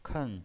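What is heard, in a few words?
"ken", Mandarin Chinese, fourth tone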